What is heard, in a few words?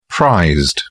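"prized", British English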